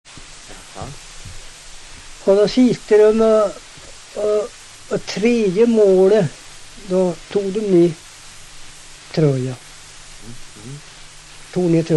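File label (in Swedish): Hede Härjedalen, svenska sagor dialekter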